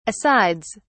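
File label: "asides", English, British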